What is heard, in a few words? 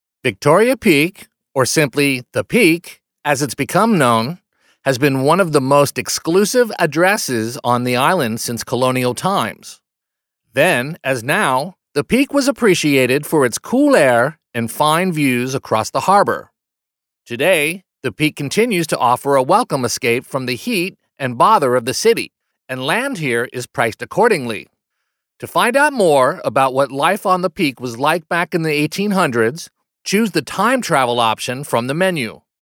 US male corporate